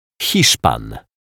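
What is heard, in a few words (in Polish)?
"Hiszpan", Polish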